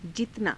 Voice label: "jitanaa", Hindi